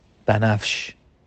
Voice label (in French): "Mauve" Farsi